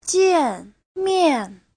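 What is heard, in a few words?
"jiànmiàn", Mandarin Chinese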